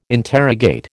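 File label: "interrogate", American English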